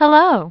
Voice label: hello 9600hz; python pydub fft